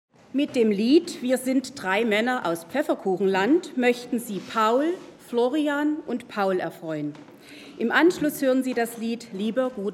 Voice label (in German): Ansage, Chor-Aufnahme